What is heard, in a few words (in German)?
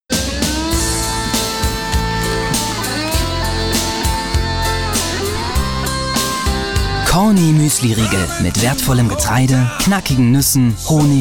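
Werbung Dynamisch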